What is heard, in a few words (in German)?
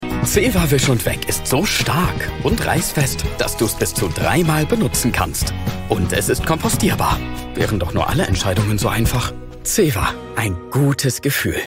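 DEUTSCH, NATIVE GER - warm, herzlich, Augenhöhe, Commercial (Werbung); ZEWA - Wisch & Weg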